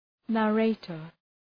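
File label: "narrator", English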